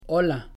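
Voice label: "hola", Spanish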